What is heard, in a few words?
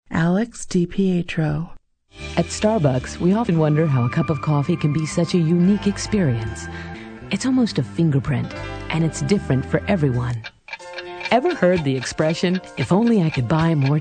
Female Voices; voice talent; television and radio commercials, industrials, cd-roms, cartoon